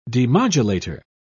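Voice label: "demodulator", British English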